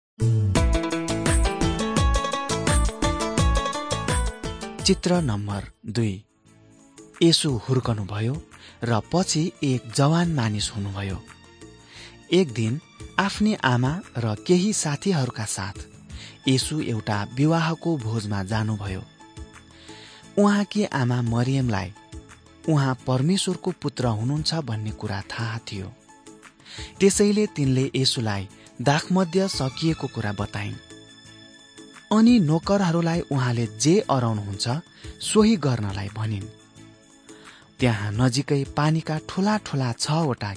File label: jesus lord and saviour nepali